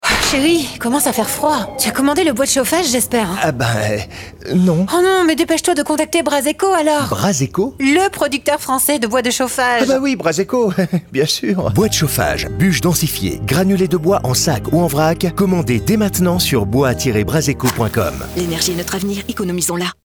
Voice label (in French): spot radio; BRAZECO